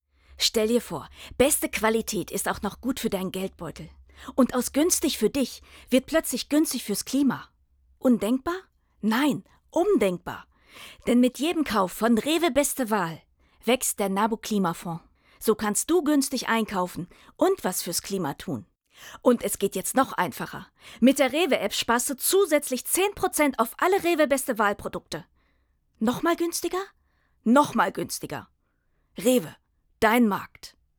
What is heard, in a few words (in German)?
Spot